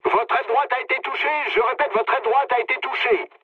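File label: French right wing mood low; War Thunder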